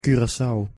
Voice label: pronunciation nl curaçao